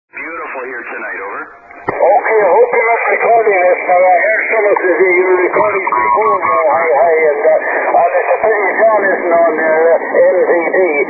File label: 80m ssb